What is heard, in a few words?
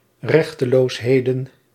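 "rechteloosheden", Dutch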